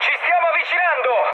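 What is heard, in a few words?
Italian near waypoint mood high, speech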